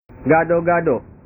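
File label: "gado gado", Indonesian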